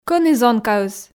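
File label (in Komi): кӧні зонкаыс, Komi, female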